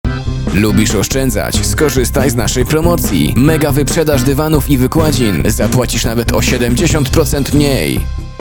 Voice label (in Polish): radio